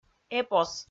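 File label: "эпос", Komi, female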